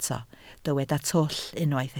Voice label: "twll", Welsh

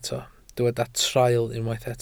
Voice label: "traul", Welsh

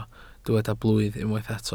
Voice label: "blwydd", welsh

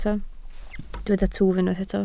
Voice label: "twf", Welsh

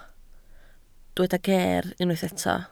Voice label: "geer", Welsh

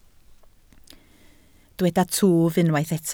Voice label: "twf", Welsh

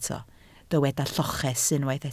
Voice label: "lloches", Welsh

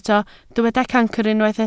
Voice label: "cancr", Welsh

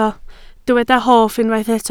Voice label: "hoff", Welsh

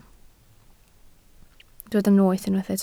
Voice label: "noeth", Welsh

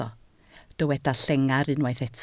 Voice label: "llengar", Welsh

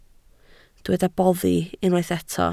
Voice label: "boddi", Welsh

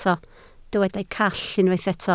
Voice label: "call", Welsh

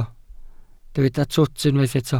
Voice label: "twt", Welsh

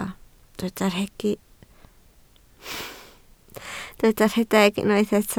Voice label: "rhedeg", Welsh

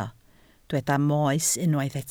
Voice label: "moes", Welsh

